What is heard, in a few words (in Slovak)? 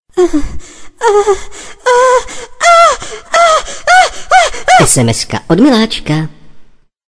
sms hlásky cz